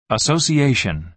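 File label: "association", American English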